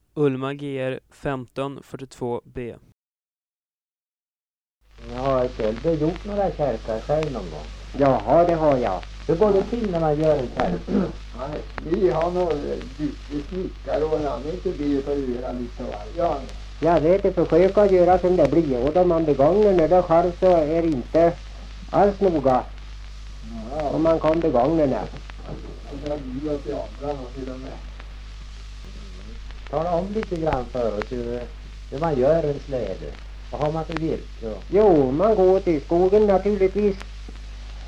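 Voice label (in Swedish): Ljustorp Medelpad, dialekter; Folkminnen; Svenska sagor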